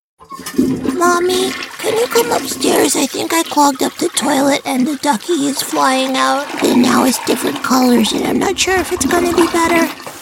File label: Animation; AntlandProductions